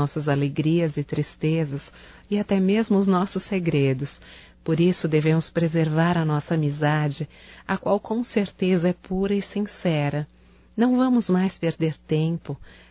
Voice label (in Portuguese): SAUDADES ONDE ESTá VOCê (F)